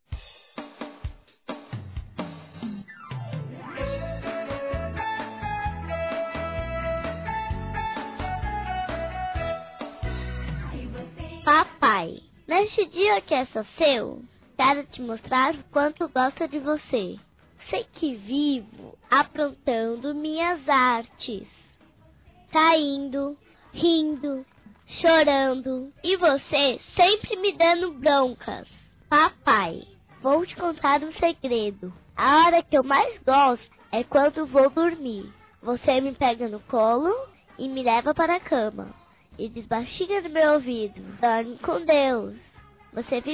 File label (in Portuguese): infantil 02 vozes, Telemensagens, Dia dos Pais; fem; Telemensagens 2017